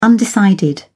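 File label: "undecided", British English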